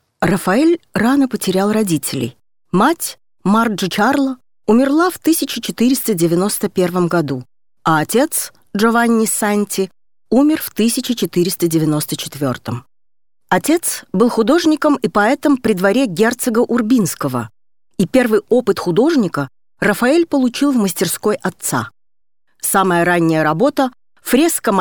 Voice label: audioguide